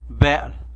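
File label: "snake", Tlacolula Zapotec; bel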